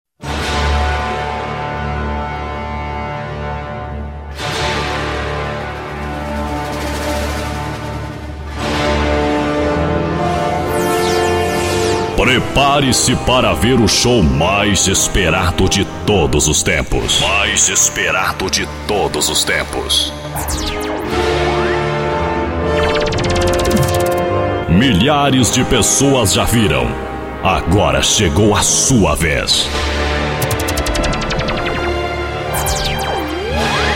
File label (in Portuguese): abertura locutor